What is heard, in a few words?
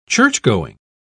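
"churchgoing", British English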